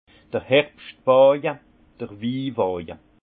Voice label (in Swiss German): "herbschwaje", alsace; vin; voiture de vendange